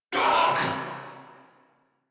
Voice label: Surprised Crewmen, en; Warframe; Corpus Crewmen; Crewman A; Battle Barks